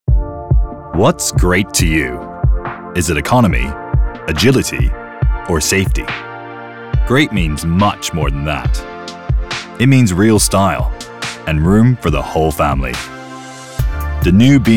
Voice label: Commercial

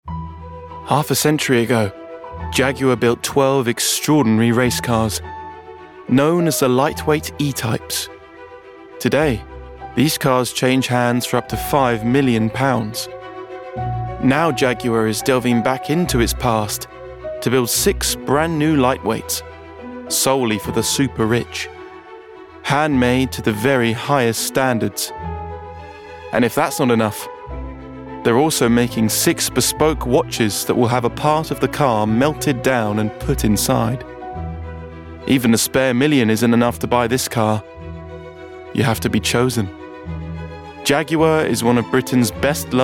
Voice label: documentary

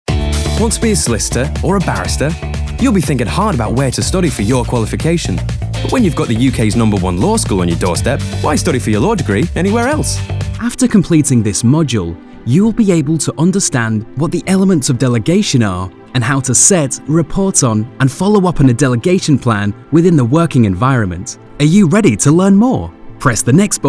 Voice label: Northern

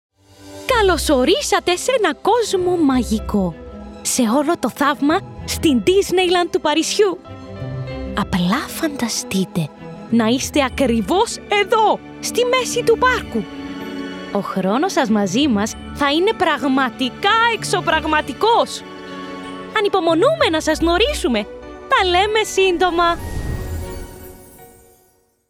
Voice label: Greek Commercial

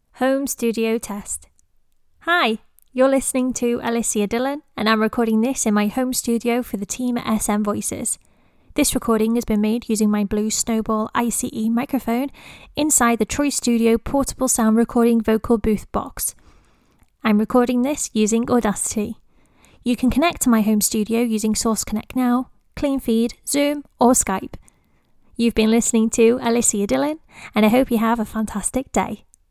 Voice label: Home Studio Test; Remote Access

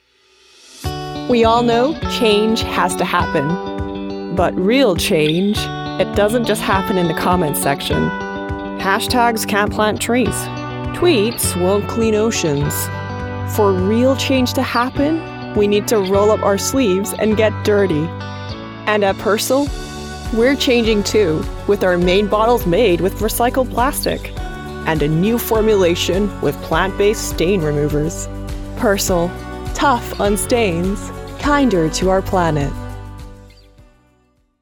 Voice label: Ad; Persil

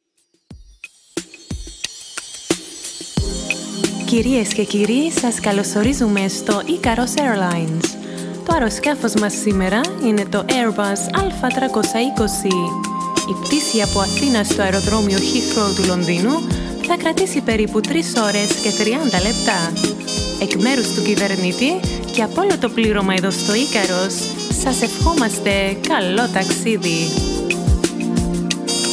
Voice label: Greek In Flight Announcement

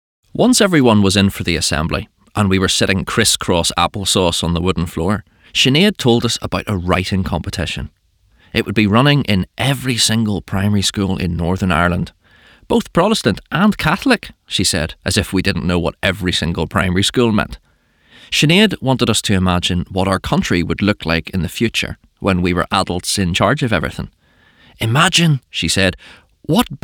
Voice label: Narrative

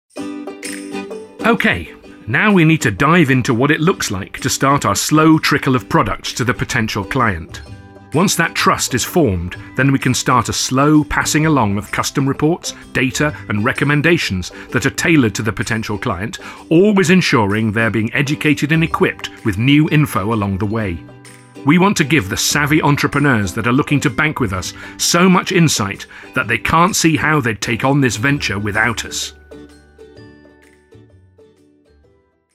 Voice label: Corporate

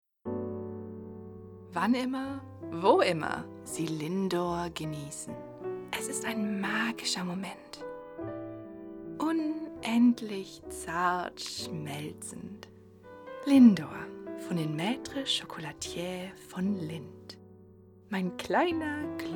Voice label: Ad with alternative music German; Lindor

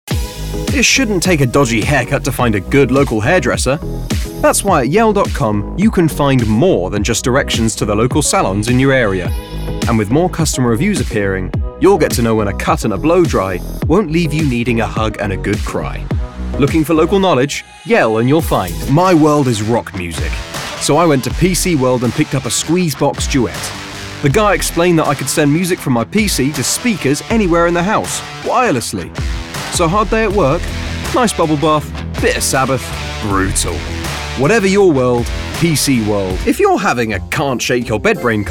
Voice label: Commercial

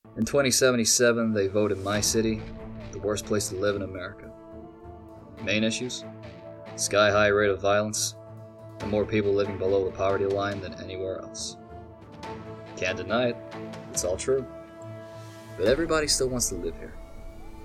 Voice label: Gaming Character Reel

